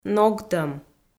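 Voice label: "ногтӧм", Komi, female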